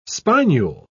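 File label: "spinule", British English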